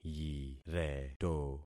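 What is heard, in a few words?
"yireto", concatenated syllables; Affixing experiment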